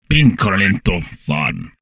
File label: humanoid portrait male; Stellaris